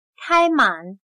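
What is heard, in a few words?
"开满", Chinese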